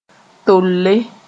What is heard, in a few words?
"tolle", Mooré